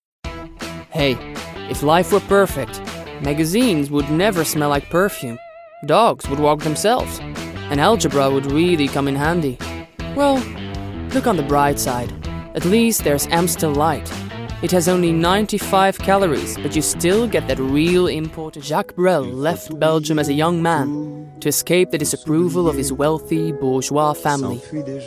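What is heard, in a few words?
Flemish English; Male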